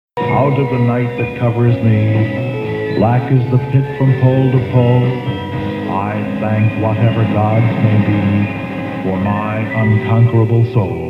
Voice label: psychedelic poetry; 1968